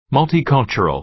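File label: "multicultural", American English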